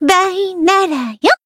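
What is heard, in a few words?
finish, Japanese; pizzica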